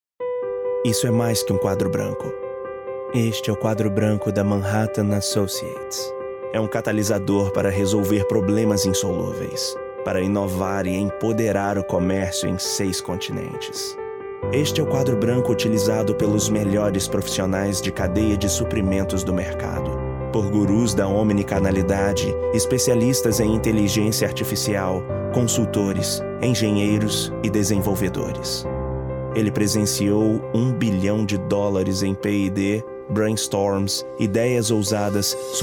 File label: Brazilian Portuguese, M, Corporate